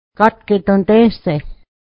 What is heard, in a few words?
when will you return, mohawk